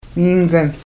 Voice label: "mhiingan" pronunciation; Aadsookaan related words